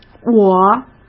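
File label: "我", Mandarin Chinese; chinese-flashcards; hsk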